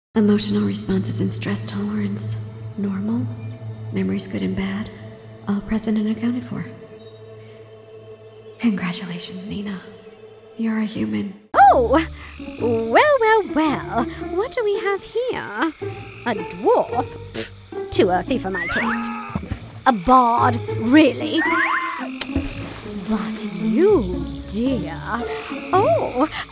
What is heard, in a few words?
animation women